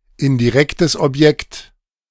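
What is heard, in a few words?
"indirektes Objekt", German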